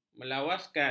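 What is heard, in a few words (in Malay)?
"melawaskan", Malay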